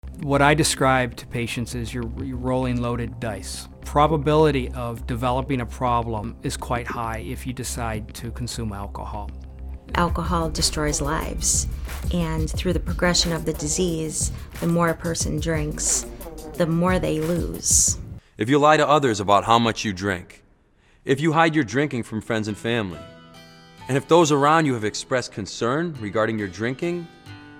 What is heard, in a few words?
30sec commercial